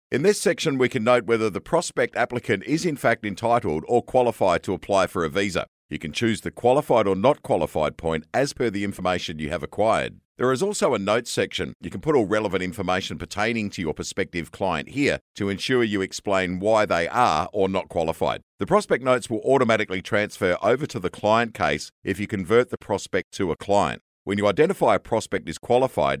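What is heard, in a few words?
Australian English, eLearning; GoLocalise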